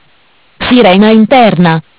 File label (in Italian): "Sirena interna", MESSAGGI VOCALI; Bentel; BLE320; Audio BGS220